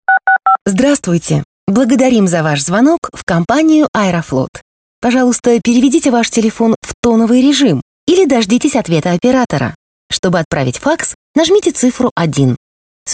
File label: woman